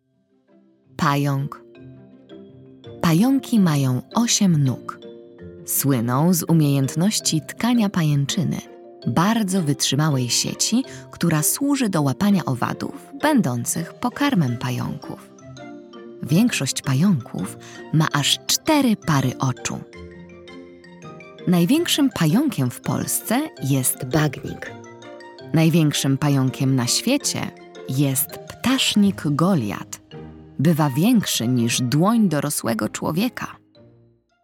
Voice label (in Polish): narracja; czasopismo mis; wiosna